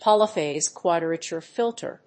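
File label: "polyphase quadrature filter", English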